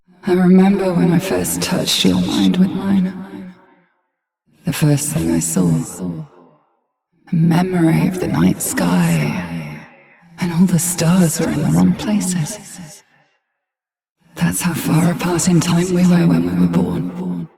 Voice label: Ambient Barks, en